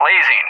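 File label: "lasing", English; JTAC; DCS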